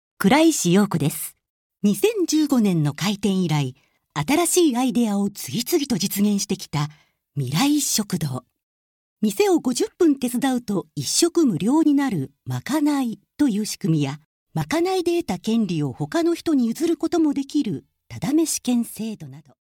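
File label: female tv narration English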